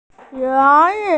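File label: "ze2ren4", Mandarin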